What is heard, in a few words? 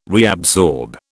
"reabsorb", British